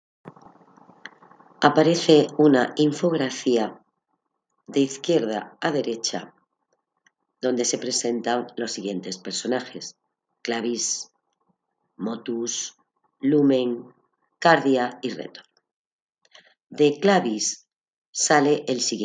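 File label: count to five in french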